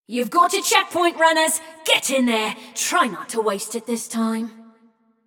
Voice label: Checkpoint Opened, Announcer; Flux; Scraprun

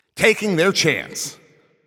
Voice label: Shot On Goal, Announcer

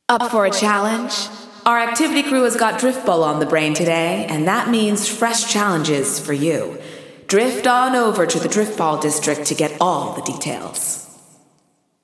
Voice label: Announcing New Challenges; Driftball; GAIA

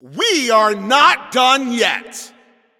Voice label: Announcer Overtime Starts

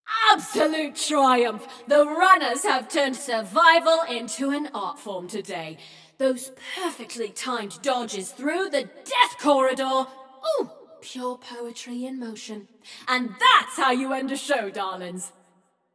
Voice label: Runners Win, Announcer; Flux; Scraprun

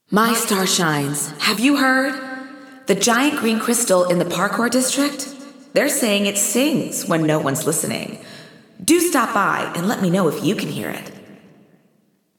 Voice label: Announcements; Mysterious Crystal; GAIA

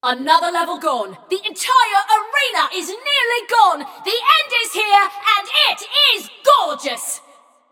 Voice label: Scrap Run Entire Arena Nearly Gone, Announcer; Flux

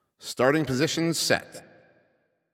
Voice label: Ball Placed in Start Ring, Announcer

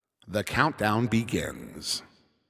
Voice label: 10 Seconds To Game Start, Announcer

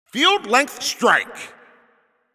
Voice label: Announcer Long Hit To Other Side

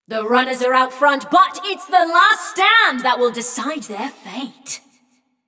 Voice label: Runners Lead, Announcer; Flux; Scraprun

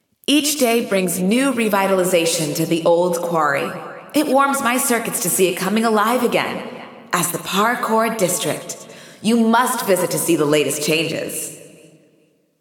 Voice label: Announcements; New Parkour; GAIA

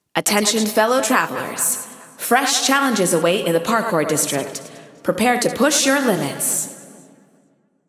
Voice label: Announcing New Challenges; Parkour; GAIA

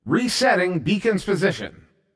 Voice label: Resetting Position, CTF Announcer

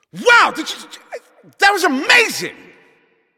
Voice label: Goal Scored, Announcer

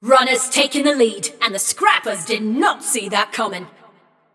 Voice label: Scrap Run Runners Lead, Announcer; Flux

